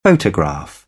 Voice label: "photograph", British; AmericanBritish

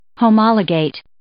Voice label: "homologate", English

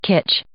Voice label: "kitsch", English

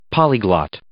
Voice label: "polyglot", English

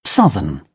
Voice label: "southern", British; English

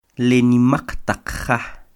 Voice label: Le nimaq taq ja, Quiché; Las casas grandes; The big houses; INALI; UTexas; CHQETA

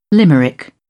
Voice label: "limerick", British; ɚ - əɹ; English

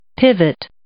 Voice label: "pivot", English

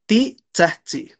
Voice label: "titsatsi", Náhuatl; tú gritas; you shout

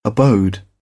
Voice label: "abode", British; oʊ - əʊ; English

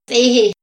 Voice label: "zeje", Mazahua; INALI; AprendeMazahua

